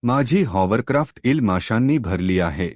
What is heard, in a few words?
hovercraft, Marathi